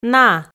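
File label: "на", Komi, female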